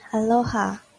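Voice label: "aloha", Japanese, female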